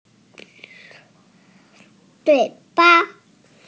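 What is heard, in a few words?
"tail", Mandarin Chinese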